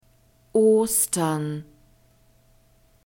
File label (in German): "ostern", German; phonetic script grouped consonants